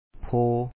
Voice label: "pho#o", Lao; ໂພ